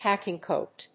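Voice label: "hacking coat", English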